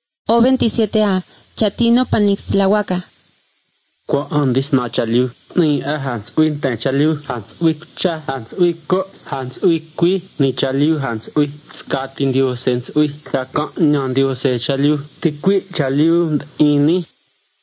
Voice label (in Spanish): spanish mexico